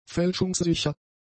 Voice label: "fälschungssicher", German; adjectives

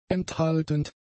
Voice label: "enthaltend", German; adjectives

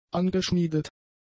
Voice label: "angeschmiedet", German; adjectives

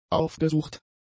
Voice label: "aufgesucht", German; adjectives

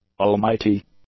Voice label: "almighty", English; adjectives

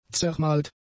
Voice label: "zermahlt", German; adjectives

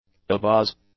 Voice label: "abuzz", english; adjectives

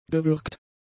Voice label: "gewürgt", German; adjectives

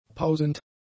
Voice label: "pausend", German; adjectives

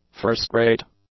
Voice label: "first-rate", english; adjectives

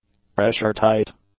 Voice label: "pressure-tight", english; adjectives

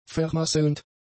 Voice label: "vermasselnd", German; adjectives

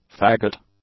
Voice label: "fagot", English; nouns

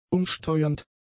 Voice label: "umsteuernd", German; adjectives

